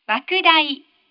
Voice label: F dereverberated